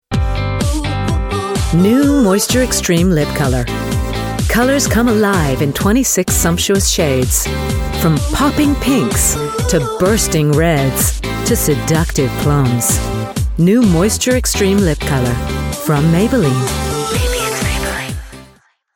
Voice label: advert; maybelline